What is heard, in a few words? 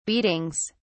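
"beatings", British English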